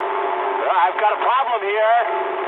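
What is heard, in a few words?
English aircraft damaged mood med; War Thunder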